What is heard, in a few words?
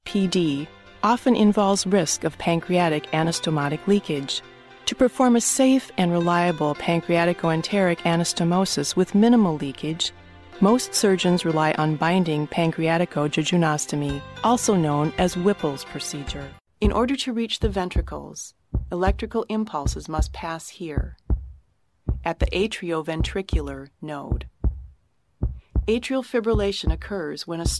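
Medical Narration e learning, British English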